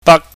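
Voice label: "bak", Cantonese, high level tone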